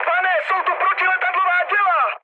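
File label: damaged aa, Czech, mood high; speech; War Thunder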